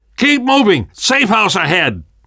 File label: world hospital, namvet, survivor voice